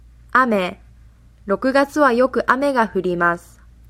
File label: rain, japanese